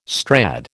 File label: "Strad", American